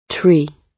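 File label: "tree", British English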